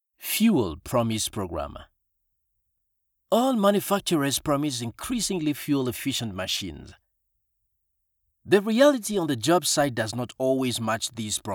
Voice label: english african automotive